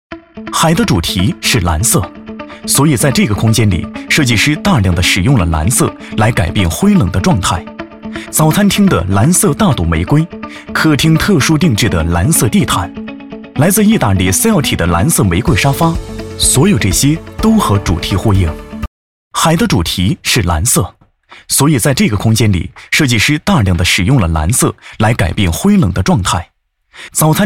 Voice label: 宣传片-男30-年轻科技感

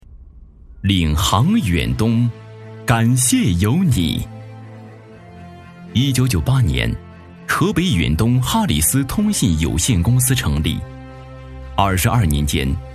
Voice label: 宣传片-男48-公司宣传

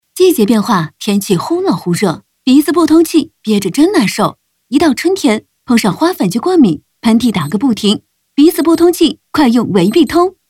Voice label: 欢快轻松

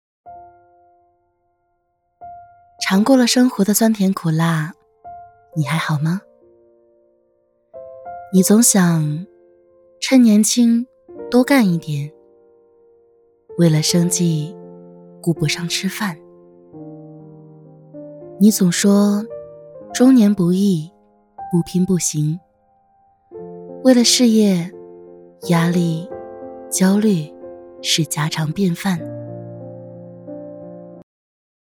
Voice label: 走心

